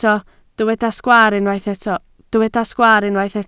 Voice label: "sgwaar", Welsh